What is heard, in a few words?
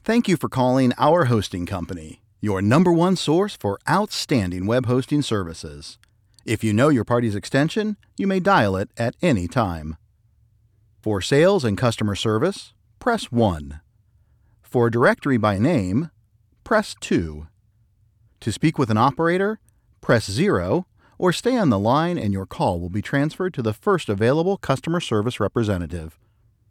Phone Script